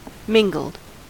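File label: "mingled", US English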